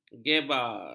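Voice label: "gebar", Malay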